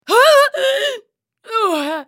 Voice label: dying, en US; Sejuani; Character Voices - English